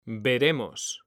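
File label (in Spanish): "veremos", Spanish, male; mekanta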